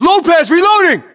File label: US inform reloading, battlechatter, english